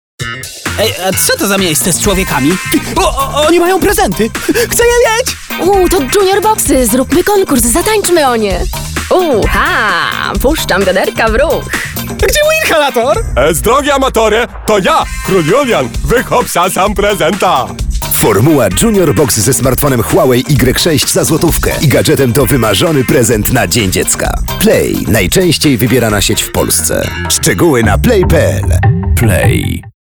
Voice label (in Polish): RADIO mix; Madagaskar; HUAWEI